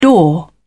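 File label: "door", British English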